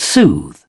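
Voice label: "soothe", British English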